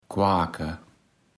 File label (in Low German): "quake", Platt